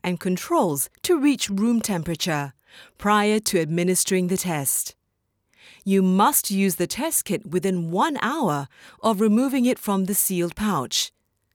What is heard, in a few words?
Explainer VO, Covid